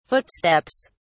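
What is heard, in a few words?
"footsteps", en, uk British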